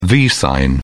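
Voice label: "v sign", British English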